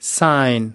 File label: "sign", us